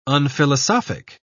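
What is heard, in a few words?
"unphilosophic", British English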